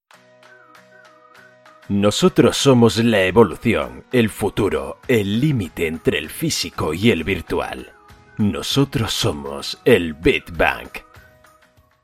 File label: SPANISH